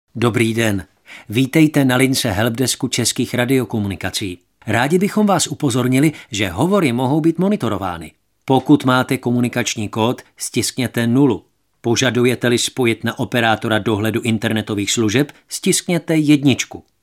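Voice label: male Czech IVR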